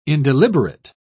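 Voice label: "indeliberate", British English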